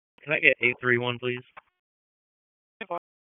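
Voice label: Police Northeast District Dispatch, p25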